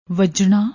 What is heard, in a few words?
"vajna", Punjabi; ਵੱਜਣਾ